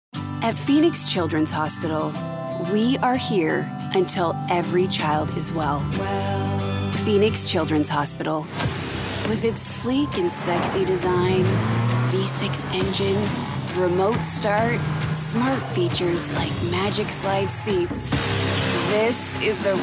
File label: Commercial